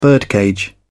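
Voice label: "birdcage", British English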